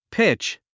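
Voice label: "pitch", English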